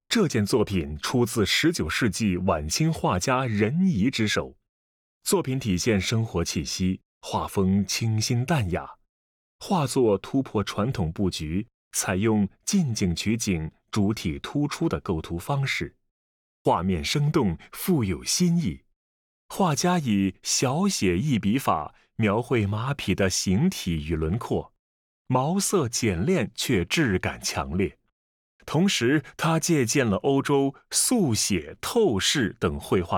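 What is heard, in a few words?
Mandarin, audio guide; 云山策马图; 任颐（1840–1896年）; 清光绪十二年（1886年）; Heavenly Horse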